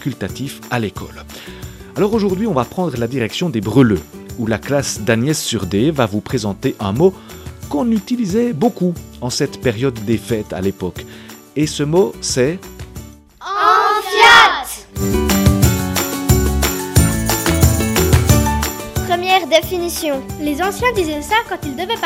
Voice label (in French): patois